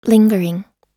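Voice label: "lingering", American English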